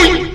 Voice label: oi sound effect